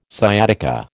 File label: "sciatica", American